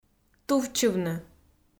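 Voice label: "тувччывны", Komi, female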